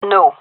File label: negative, gendermerie fem; vo